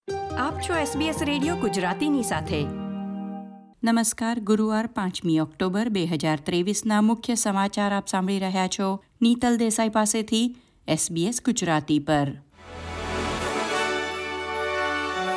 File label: gujarati news bulletin; SBS Gujarati News Bulletin 5 October 2023